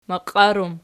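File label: "maqiar" plural, Archi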